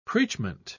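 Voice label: "preachment", British English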